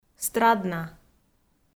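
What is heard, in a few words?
"страдна", Komi-Zyrian, female; audio dict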